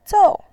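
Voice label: "zou" Mandarin Chinese, fourth tone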